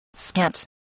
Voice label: "scant", voice spelling

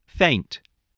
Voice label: "faint", voice

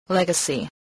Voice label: "legacy", English

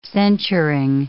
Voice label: "censuring", English

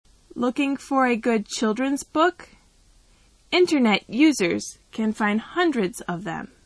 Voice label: M

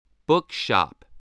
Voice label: "bookshop", spelling

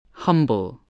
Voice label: "humble", voice spelling

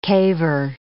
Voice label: "caver", English

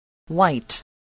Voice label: "white", spelling

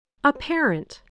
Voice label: "apparent", English

